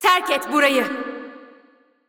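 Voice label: voice over, Turkish; Irelia; bloom minigame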